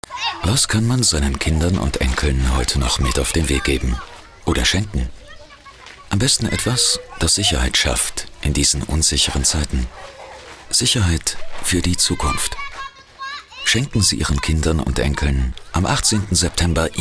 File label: radio